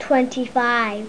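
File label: "twenty-five", English; Natalia's Dots 3.1.1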